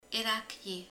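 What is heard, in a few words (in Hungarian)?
"lány (nem cigány)", Hungarian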